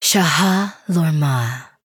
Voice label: Female Night Elf

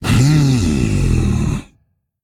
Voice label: Male Drust Thinking

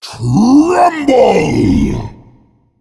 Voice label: Male Elemental Attack

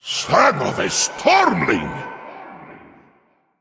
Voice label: Male Elemental, Emote WOW

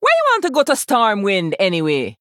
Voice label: Female Troll; Hooktusk

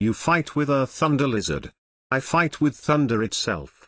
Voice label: Male Troll; Brukan; Scabbs

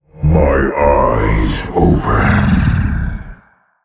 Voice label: Male Old God

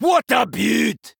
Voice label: Male Dwarf Wow

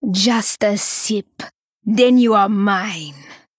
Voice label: Female Troll Play

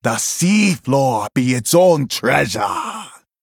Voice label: Male Troll Play

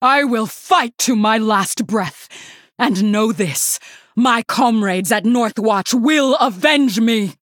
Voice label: Female Human; Cariel; Kurtrus

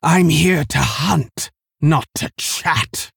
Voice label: Female Orc Emote Response; Garona

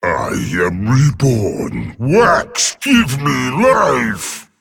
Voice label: Male Dragon Trigger

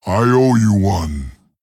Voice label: Male Tauren Thanks

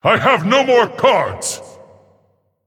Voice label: Male Titan Forged

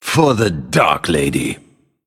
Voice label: Male Forsaken Play

